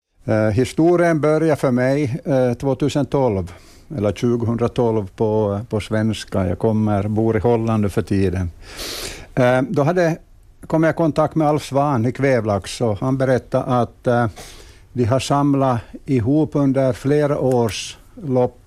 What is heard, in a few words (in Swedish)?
dialekter kartlaggs i kvevlax